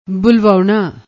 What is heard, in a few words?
"bulvaouna", Punjabi